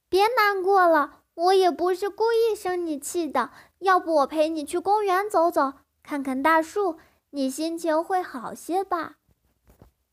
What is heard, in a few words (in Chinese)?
幼音